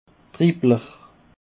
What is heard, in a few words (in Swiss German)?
"dribbler", alsace